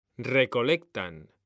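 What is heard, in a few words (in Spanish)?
"RECOLECTAN", Spanish; mekanta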